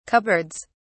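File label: "cupboards", British English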